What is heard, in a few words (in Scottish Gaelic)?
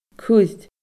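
"cuir", Scottish Gaelic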